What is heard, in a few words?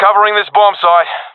guarding bombsite, professional epic; vo; Counter Strike Model Pack; CS:GO